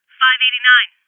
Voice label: Voices Trap Bomb Spotted Female

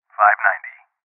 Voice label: Voices AlarmState Male

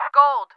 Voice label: English Gold

